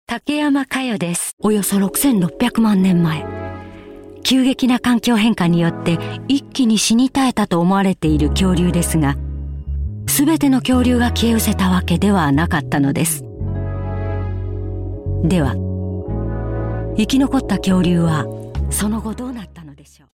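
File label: female tv program, English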